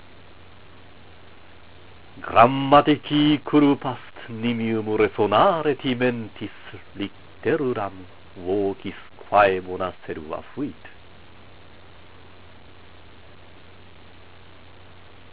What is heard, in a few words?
Recitatio, latin